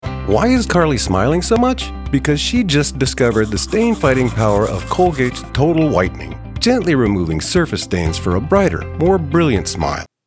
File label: Male Voice over actor; professionally trained voice actor; Colgate